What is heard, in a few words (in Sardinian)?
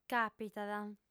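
"càpitat", female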